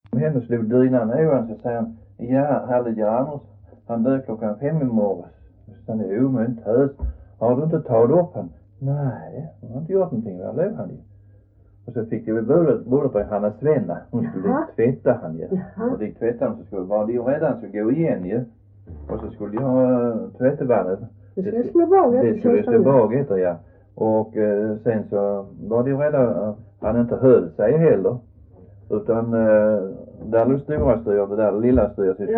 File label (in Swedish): Röddinge, dialekter; Folkminnen